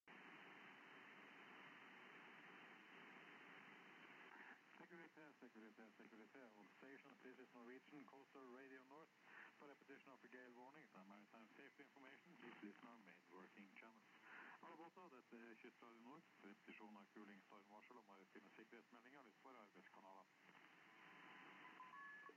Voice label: Norway 2182 Norwegian Coastal Radio North

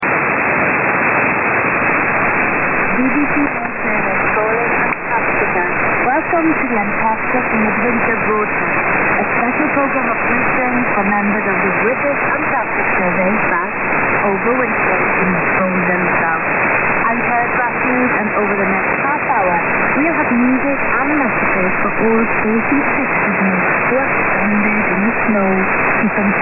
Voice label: 5875 kHz, USB

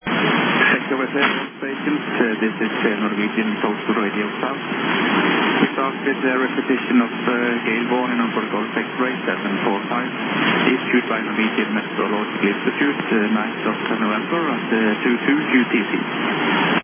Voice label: Norway 1680 Norwegian CR Floro

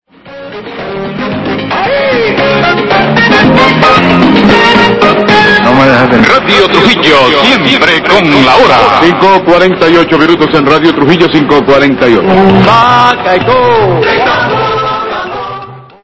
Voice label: Radio Trujillo 1280 Local Recording

